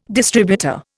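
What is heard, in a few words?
"distributor", Indian English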